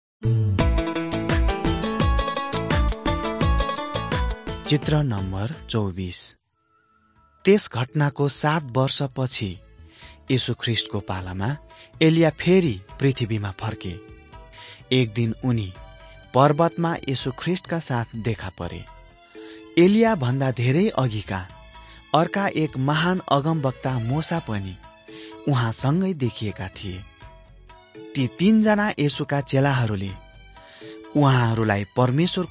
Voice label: servants of god nepali

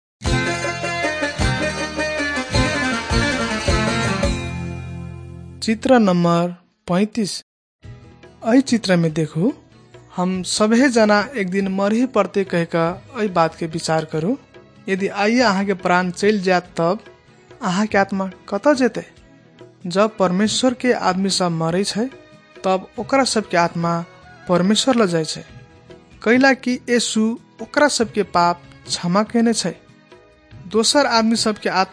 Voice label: maithili thetiya

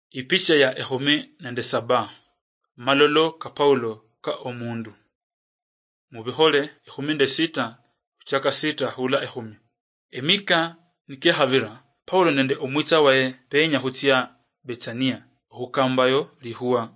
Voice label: acts of the holy spirit luyia marachi, drama

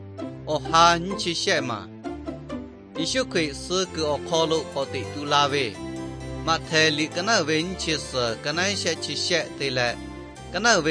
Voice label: victory through god lahu na, nt drama

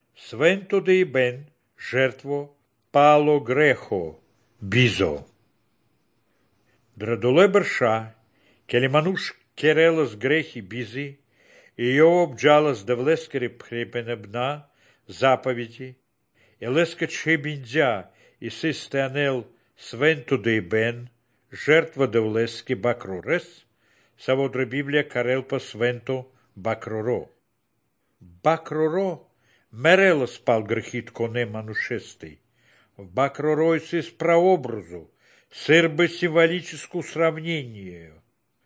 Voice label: good news baltic romani

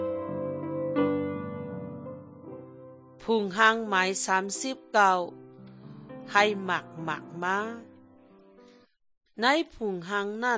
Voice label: good news shan eastern, drama; bible; shan thailand bible society nt drama

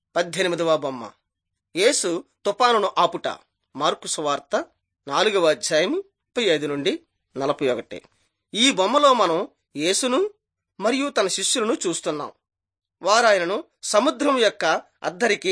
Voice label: telugu bangladesh; jesus teacher and healer

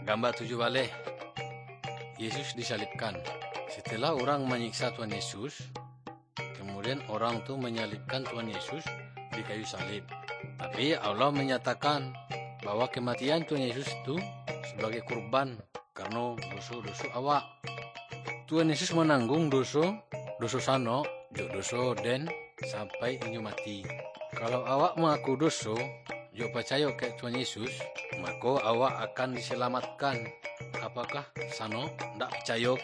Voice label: good news minangkabau muko muko; kaba ilo

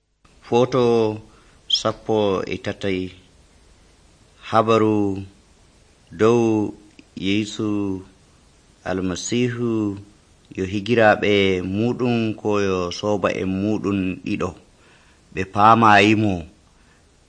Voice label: fulfulde, drama; fulfulde adamawa abc nt drama

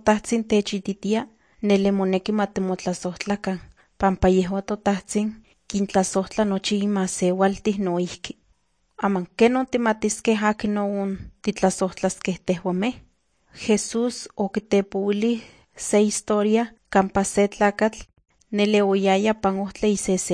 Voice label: nahuatl guerrero tlapa; the bible league nt drama

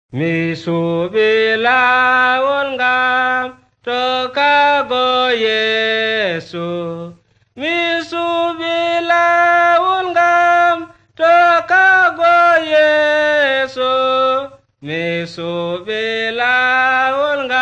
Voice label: words of life adamawa fulfulde, drama

